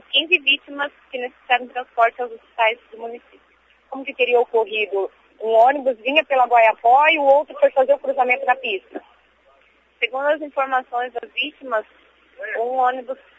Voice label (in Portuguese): entrevista acidente tenente ao vivo